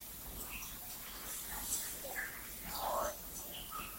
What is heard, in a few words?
whisper